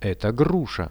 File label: "eto grusha", Russian; Это груша.